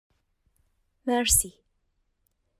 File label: French